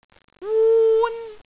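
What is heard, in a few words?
"wun1", Cantonese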